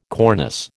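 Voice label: "cornice", American English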